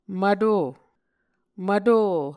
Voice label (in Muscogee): "mvto", Muscogee